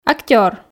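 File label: "актёр", Komi, female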